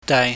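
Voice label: "daai", Cantonese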